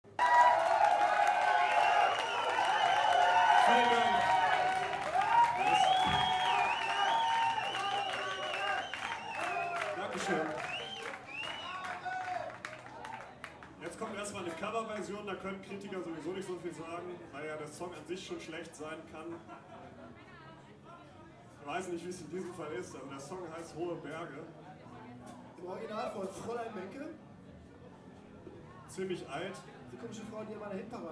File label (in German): ansage